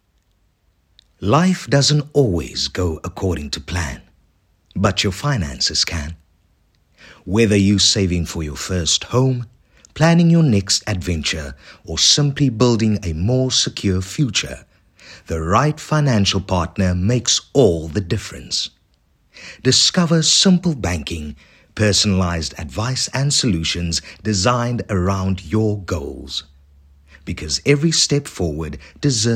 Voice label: Medium Sell English